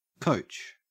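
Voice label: "coach", Australian English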